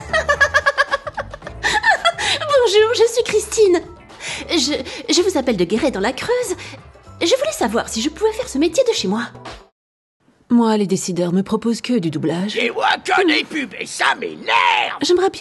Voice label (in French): doublage cartoon